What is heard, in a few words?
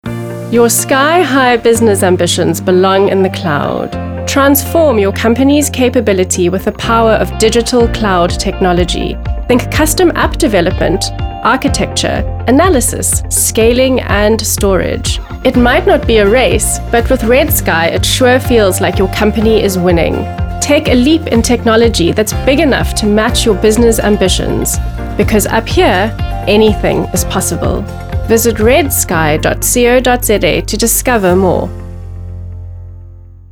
Corporate Explainer Friendly Professional